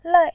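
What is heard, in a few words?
"lai4", Mandarin Chinese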